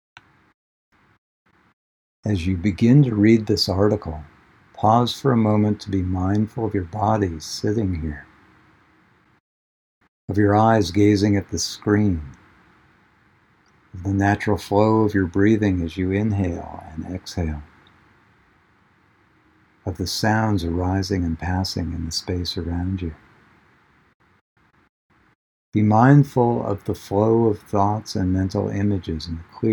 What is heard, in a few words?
Mindfulness Intro Meditation; Leveys